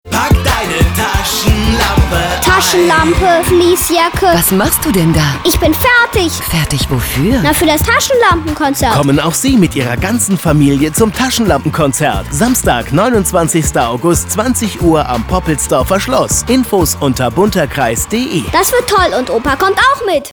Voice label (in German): radiospot; taschenlampenkonzert 2015